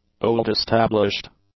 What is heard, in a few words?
"old-established", English; adjectives